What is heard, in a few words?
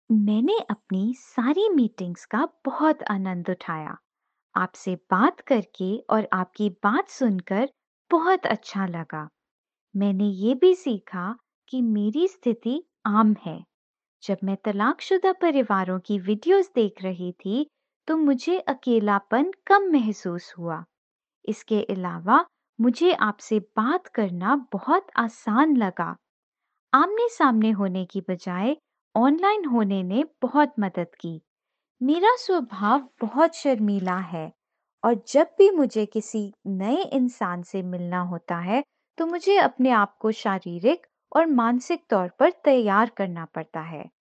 Hindi Quote